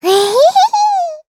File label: Happy, jp; Taily; kingsraid